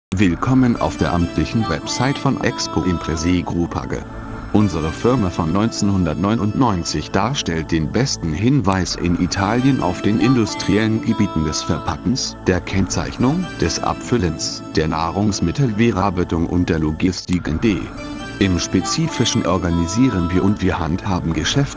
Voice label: MESSAGE DEU